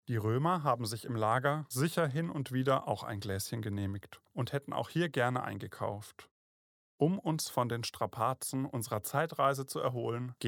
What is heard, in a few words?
voice over outro; arte-fact; altstadtchronik mit augen und ohren